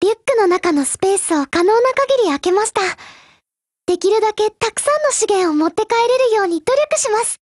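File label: OPERATION BEGIN, Japanese, Voice; GF Alarm; M1895MG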